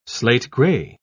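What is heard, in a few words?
"slate-grey", British English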